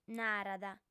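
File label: "narat" Sardinian, female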